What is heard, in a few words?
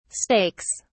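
"stakes", British English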